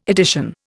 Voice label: "edition", Indian